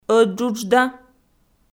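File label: "ӧтджуджда", Komi, female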